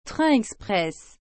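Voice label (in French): "train express", French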